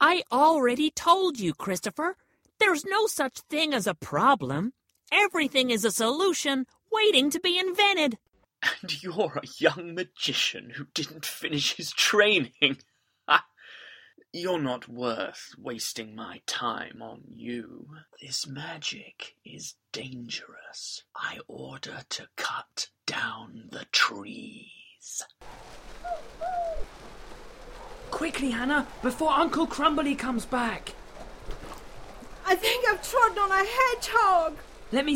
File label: Caricature animation kids